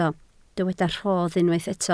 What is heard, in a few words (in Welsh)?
"rodd", Welsh